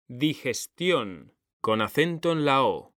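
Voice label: "DIGESTIÓN", Spanish; mekanta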